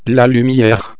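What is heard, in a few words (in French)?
"lumiere", French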